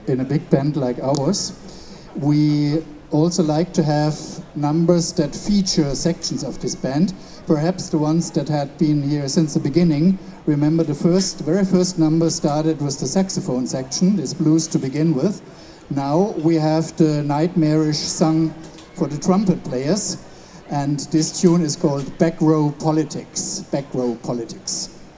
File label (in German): Ansage